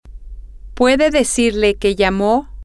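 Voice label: Spanish, female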